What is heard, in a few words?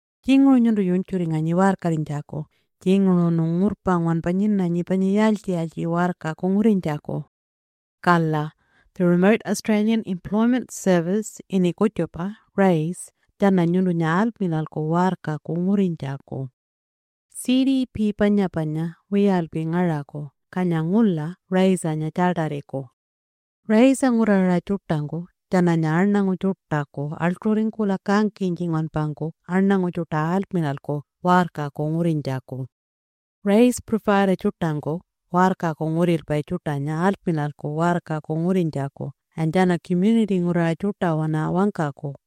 Audio message Pintupi Luritja; RAES; raes-and-rjed-digital-resources